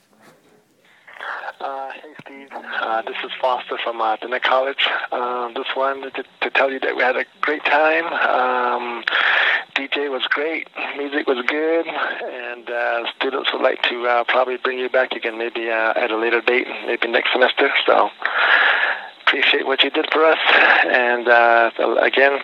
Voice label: voicemail